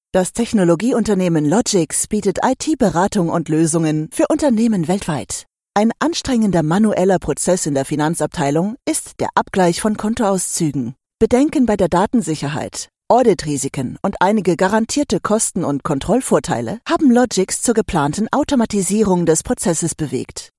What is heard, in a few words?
german corporate videos